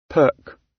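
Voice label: "perk" British; Business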